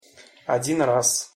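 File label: "odin raz", Russian pronunciation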